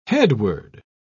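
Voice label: "headword", British English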